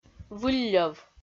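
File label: "вылльӧв", Komi, female; audio dict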